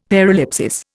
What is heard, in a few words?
"paralipsis", Indian; Dictionary